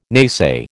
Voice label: "naysay", American